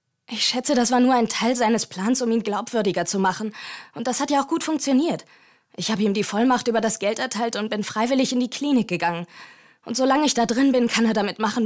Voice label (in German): synchron